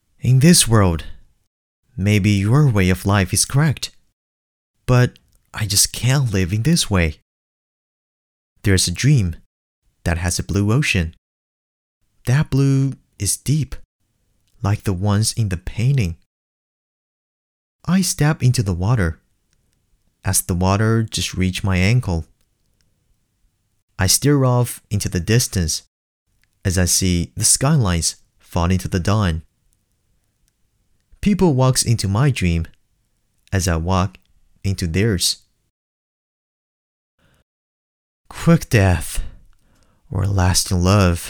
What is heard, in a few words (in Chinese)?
角色 配音